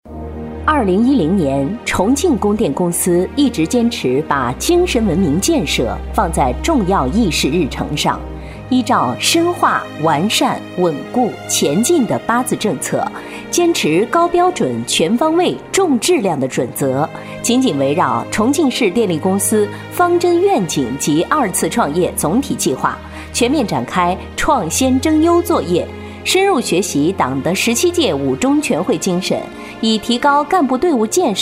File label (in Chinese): 女 专题 党政类力量干练